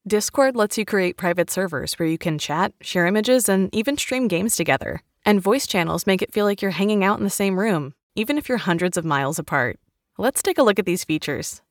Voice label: Training Sample, elearning corporate training; Discord